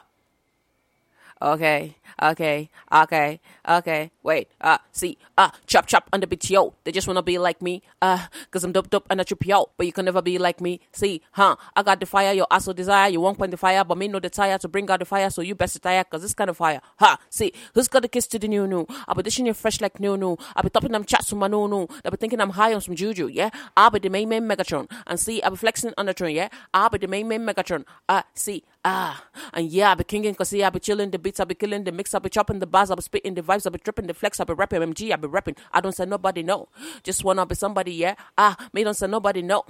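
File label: hh rap female 80 F sharp minor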